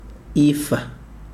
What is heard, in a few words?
"die", Chikunda